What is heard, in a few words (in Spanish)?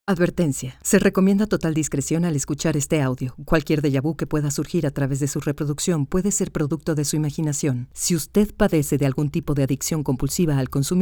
comerciales